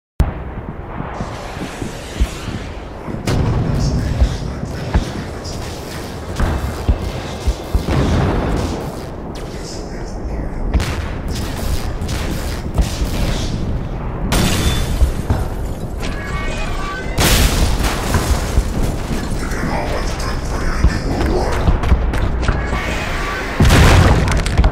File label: ASMR